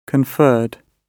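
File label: "conferred", British English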